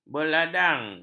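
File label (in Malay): "berladang", Malay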